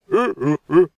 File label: male NPC; server-nalc; mobsounds